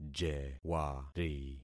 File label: "jewari", concat syllables; Affixing experiment